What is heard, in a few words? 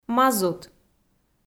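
"мазут" Komi, female; kpv audio dict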